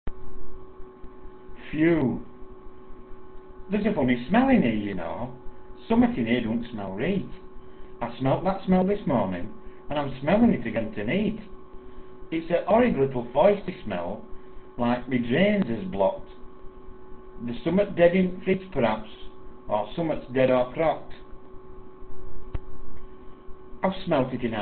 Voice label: phew, Yorkshire dialect